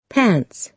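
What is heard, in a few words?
"pants", American English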